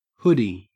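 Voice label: "hoodie", Australian English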